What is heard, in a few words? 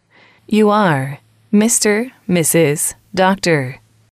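you are formal, English, female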